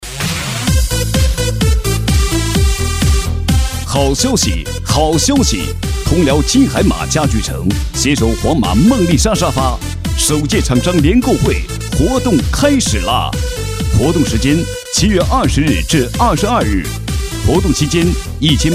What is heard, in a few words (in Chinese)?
男 促销 家具